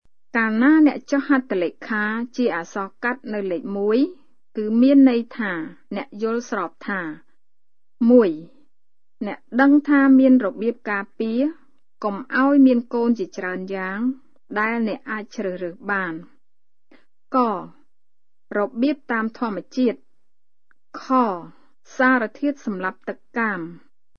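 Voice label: khmer female voice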